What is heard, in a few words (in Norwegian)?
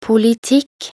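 "politikk", Norwegian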